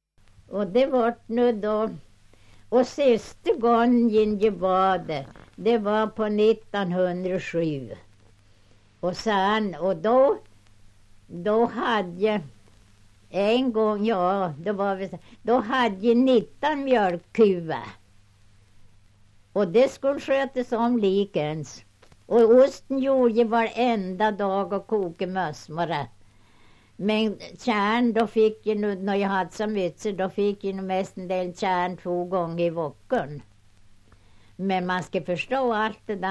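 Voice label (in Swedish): Hackås Jämtland, dialekter; Folkminnen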